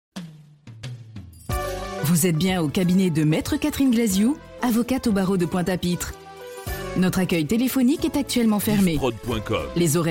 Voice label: Fermeture, telephonie svi

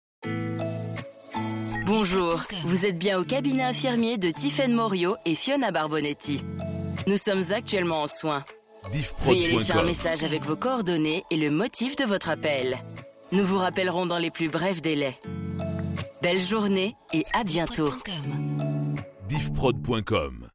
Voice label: Repondeur Voix, telephonie svi; Cabinet d Infirmieres

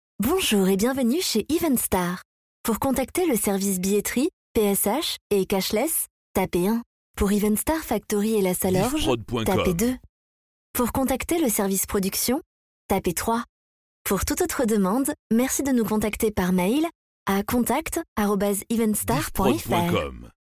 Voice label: Voix Attente, telephonie svi; EvenStar Audiotel

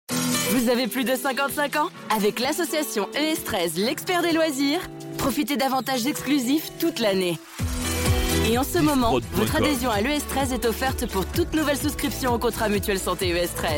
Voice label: Spot Mutuelle; Diva FM; Solimut

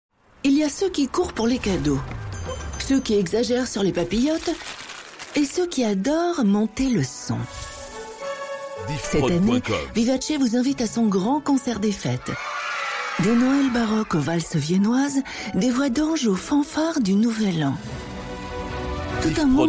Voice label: Promo Fetes Noel

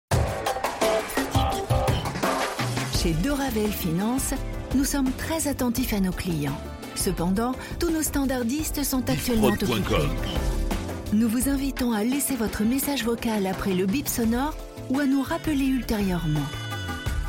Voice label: Repondeur Voix, telephonie svi; Cabinet de finances

